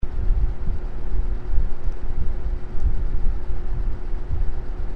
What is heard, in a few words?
Bare Mic Low; Foam Default K-FLCY-L Z-FM-M; K-Tek